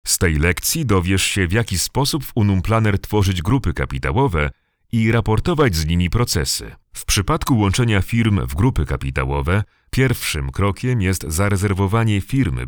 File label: polish e learning; Ein professioneller polnischer Sprecher mit langjähriger Erfahrung in landesweiten Medien